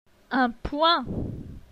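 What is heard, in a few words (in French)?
"Un poing", French; phonetique; la graphie gn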